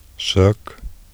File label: "sök", Icelandic